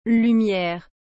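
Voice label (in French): "lumiere", French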